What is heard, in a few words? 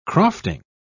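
"crofting", British English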